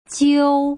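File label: "jiu", Putonghua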